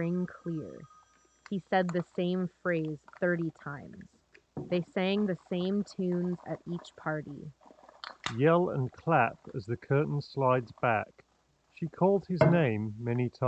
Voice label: Office microphone sample; House of Marley Positive Vibration XL wired